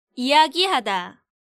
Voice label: "yiyagihada", Korean